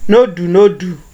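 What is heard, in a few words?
"nodunodu", Nigerian Pidgin, male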